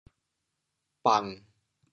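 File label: "bang1", Teochew, chaoyang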